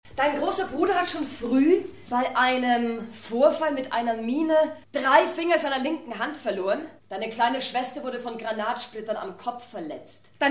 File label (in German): Radiobericht; ORF; Janne Teller; Krieg stell dir vor er wäre hier; Regie Markus Steinwender